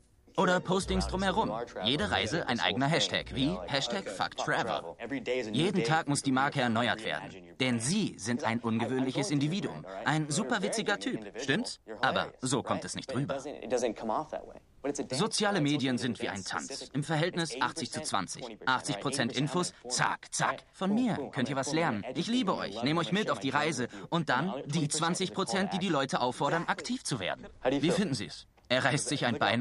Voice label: VoiceOver